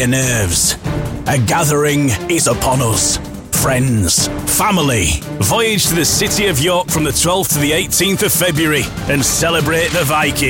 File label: yorkshire northern